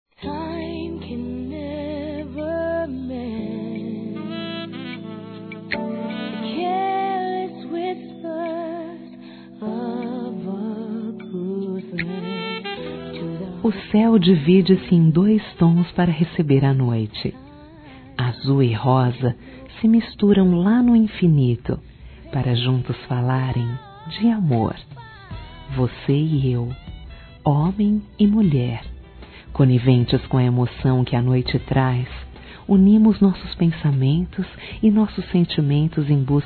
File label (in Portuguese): alma gêmea f; Dia Dos namorados; fem; Telemensagens 2017